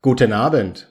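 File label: guten abend, German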